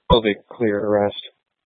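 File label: Police Dispatch, scanner audio recording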